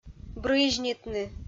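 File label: "брызьнитны", Komi-Zyrian, female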